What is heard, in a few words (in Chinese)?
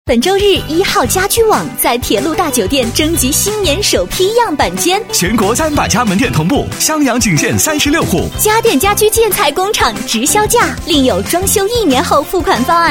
电台包装 广告一号家居网男 女 活力轻快